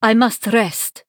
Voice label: Female Draenei Error I Attacked

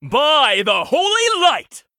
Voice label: Human Emote Play

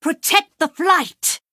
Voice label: Female Blood Elf Attack

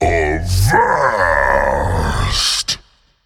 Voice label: Male Giant Play

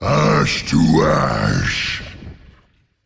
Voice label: Male Elemental Play

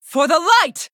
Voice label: Female Human Attack

